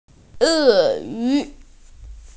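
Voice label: "e4yu2", Chinese, F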